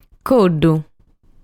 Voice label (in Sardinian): "Coddu", sardu